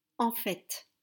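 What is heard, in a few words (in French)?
"En fait", prononciation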